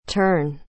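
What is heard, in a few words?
"turn", English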